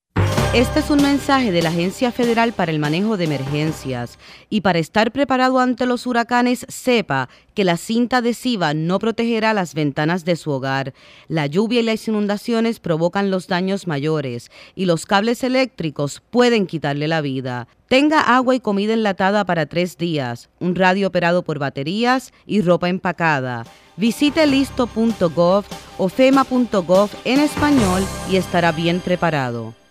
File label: Hurricane PSA; fema; ESP